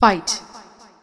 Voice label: "fight", female; feedback

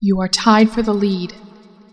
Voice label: tied lead, female; feedback

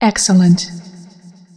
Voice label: "excellent", female; feedback

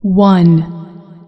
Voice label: "one", female; feedback

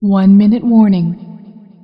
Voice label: "1 minute", female; feedback

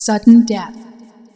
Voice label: sudden death, female; feedback

